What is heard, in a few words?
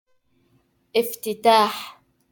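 "افتتاح" Arabic; opening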